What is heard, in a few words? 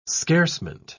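"scarcement", British English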